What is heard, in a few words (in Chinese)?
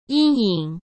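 "阴影" Mandarin; hsk; chinese flashcards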